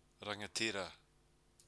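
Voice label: "rangatira", Māori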